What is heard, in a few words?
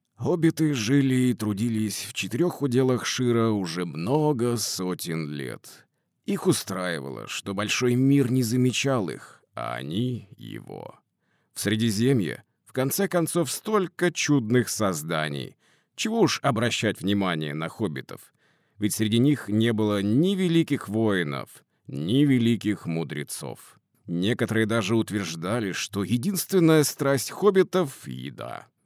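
Russian Russia male character; russian voice over talent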